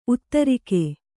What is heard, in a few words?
"ಉತ್ತರಿಗೆ", Kannada